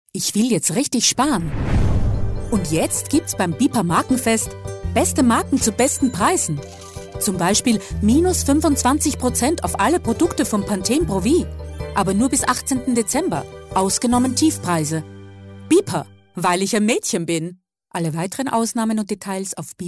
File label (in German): Werbung; BIPA